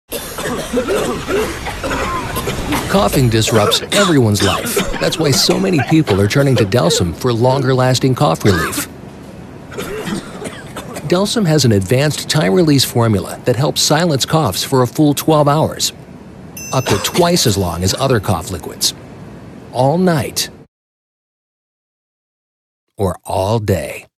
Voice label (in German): TV Werbespots; Jugendfrei; NATIVE PROFISPRECHER